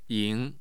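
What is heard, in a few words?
"ying2", Mandarin Chinese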